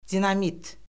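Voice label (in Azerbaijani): "dinamit", Azerbaijani; Orfoqrafiya Lüğəti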